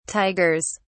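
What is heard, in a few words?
"tigers", British English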